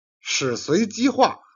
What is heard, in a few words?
"jian", Chinese